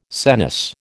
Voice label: "senesce", American